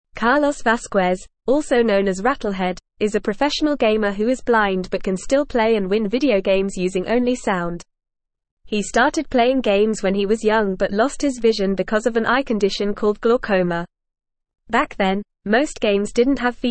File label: English Newsroom Upper Intermediate FAST Reading; Blind Gamer Uses Hearing to Excel in Mortal Kombat